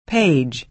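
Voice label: "page", American English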